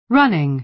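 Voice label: "running", American English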